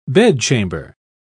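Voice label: "bedchamber", British English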